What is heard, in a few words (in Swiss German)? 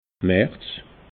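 "marz", Alsatian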